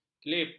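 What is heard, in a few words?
"kelip", Malay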